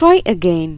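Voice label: try again, Chinese; gcompris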